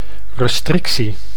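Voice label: "restricitie", Dutch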